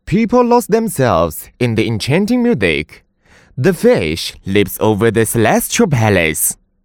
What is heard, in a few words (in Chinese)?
英男 角色 神将男独白